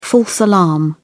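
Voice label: "false alarm", English, British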